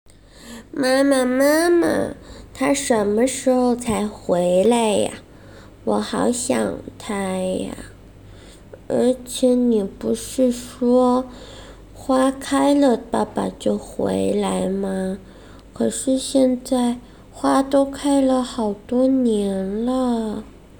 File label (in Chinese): 女童声 伪